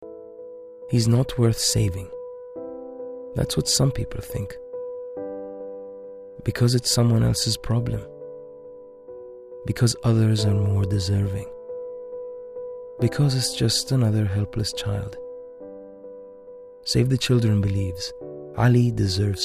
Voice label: Ara Eng

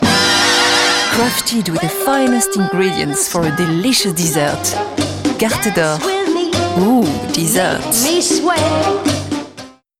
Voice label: English, French, Female, 30s-40s, voiceover; European

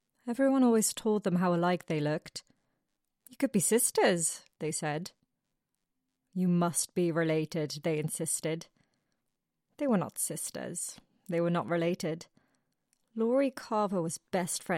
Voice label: Home Studio Read, dutch

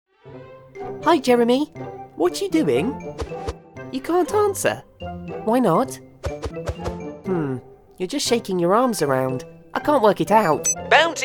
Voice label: Animation

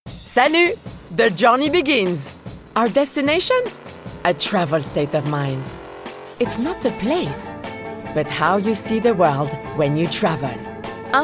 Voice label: English French Accent

